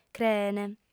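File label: "creent", female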